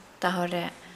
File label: Nukuoro; December